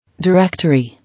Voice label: "Directory", English